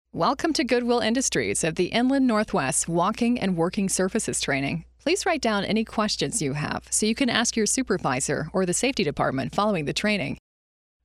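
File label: eLearning Female, Caring, Genuine, Sunny; Narration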